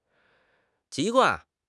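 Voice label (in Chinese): "籍贯", Chinese; HSK6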